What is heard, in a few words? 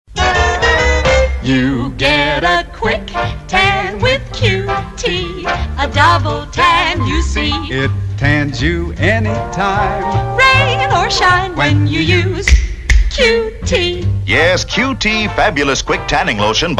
Commercial 1964; Coppertone QT